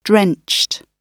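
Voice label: "drenched", British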